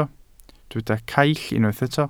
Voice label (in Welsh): "caill", Welsh